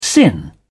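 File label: "sin", British English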